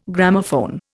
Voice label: "Gramophone", Indian

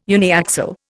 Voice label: "uniaxial", Indian

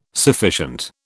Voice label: "sufficient", British

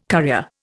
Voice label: "currier", Indian

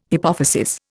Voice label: "hypophysis", Indian

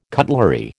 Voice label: "cutlery", American

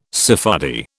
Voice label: "Sephardi", British

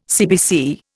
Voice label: "CBC", Indian

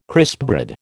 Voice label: "crispbread", American

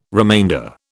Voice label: "remainder", British

